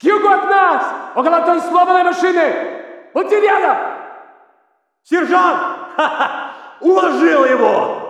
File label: walla rus mountain chatter; modern warfare 2; amb walla